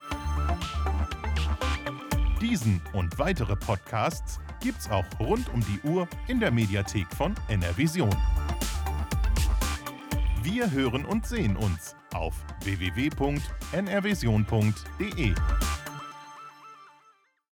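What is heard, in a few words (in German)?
audiotrailer podcast männlich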